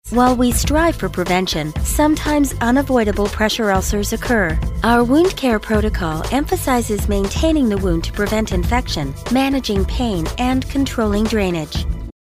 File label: medical narration; Pressure ulsers